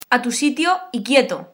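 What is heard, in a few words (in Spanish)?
"tu sitio", Spanish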